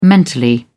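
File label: "mentally", British English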